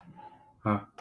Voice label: Creaky-voiced glottal approximant

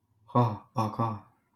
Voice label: Voiced uvular tap

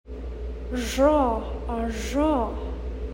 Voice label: Voiced retroflex non-sibilant fricative

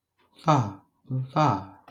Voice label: Voiceless alveolar lateral flap

